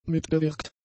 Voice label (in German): "mitgewirkt", German; adjectives